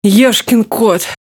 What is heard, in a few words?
Speech Quick Commands Caramba